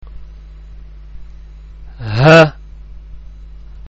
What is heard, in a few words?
"Haa", arabic; arabic-malayalam